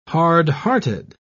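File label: "hardhearted", British English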